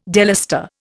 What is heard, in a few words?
"delustre", Indian